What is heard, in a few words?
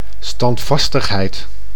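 "standvastigheid", Dutch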